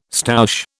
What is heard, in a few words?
"stoush", British